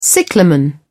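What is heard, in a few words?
"cyclamen", British English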